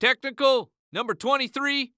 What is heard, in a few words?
Sports Human Voice Male Basketball Referee; Technical Number Twenty Three